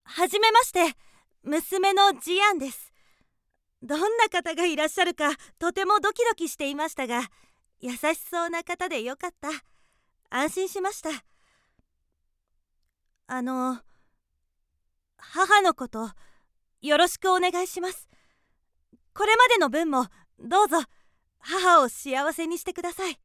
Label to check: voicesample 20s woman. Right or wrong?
Right